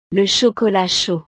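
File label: "le chocolat chaud", French; snacks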